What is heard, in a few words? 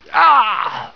pain, conscript; hl2